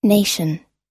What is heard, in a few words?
nation, US